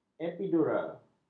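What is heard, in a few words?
"epidura", Malay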